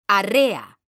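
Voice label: "arrea", Spanish, female; mekanta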